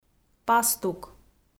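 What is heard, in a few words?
"пастук", Komi, female; audio dict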